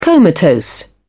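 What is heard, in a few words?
"comatose", British English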